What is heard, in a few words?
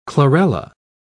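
"chlorella", English, British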